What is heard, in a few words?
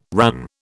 "RAN", British